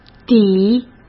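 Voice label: "底", Mandarin Chinese; chinese-flashcards; hsk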